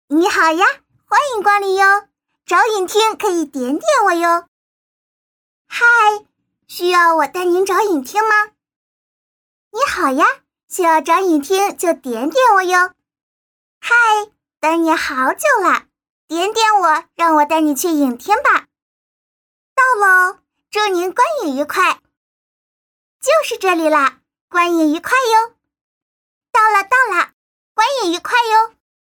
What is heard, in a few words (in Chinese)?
动画游戏角色小机器人